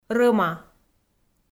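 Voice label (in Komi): "рӧма", Komi, female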